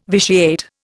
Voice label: "vitiate", Indian